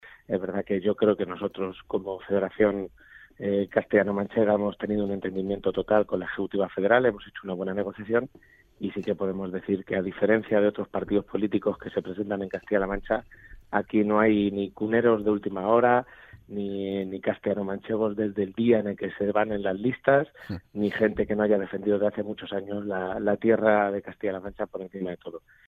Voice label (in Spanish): entrevista radio